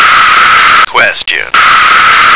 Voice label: "question", American

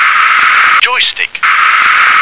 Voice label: "joystick", English

